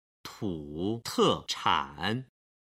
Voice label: "tu te chan", Chinese